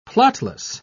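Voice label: "plotless", British English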